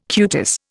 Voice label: "cutis", Indian

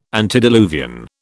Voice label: "antediluvian", British

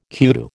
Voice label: "kudu", American

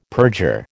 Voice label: "perjure", American

